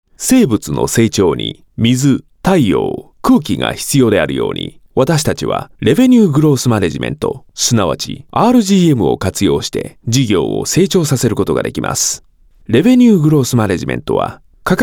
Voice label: Japanese Male eLearning

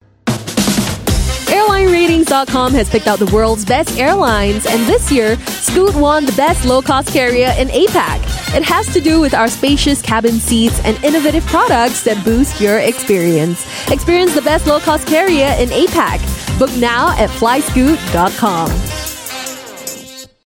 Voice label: Asian English Bright; Scoot

